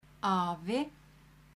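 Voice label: "afi", Icelandic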